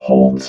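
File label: "holds", robot voice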